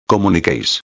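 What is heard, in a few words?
"comuniqueis", Spanish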